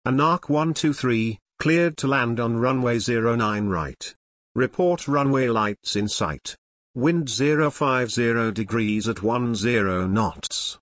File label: ATC Belly landing